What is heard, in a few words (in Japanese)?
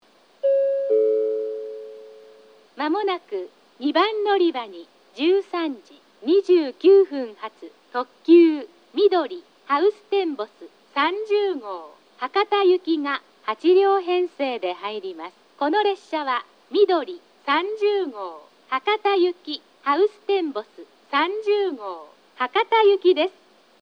2番のりば接近予告放送; みどり・ハウステンボス30号 博多行き; takeo onsen